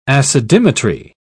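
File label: "acidimetry", British English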